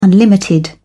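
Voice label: "unlimited", English, British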